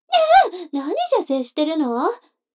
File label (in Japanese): 女の子 台詞, ASMR